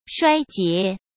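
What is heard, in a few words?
"衰竭", Mandarin Chinese; chinese-flashcards; hsk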